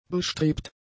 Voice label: "bestrebt", German; adjectives